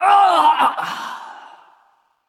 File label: Female Val'Kyr Death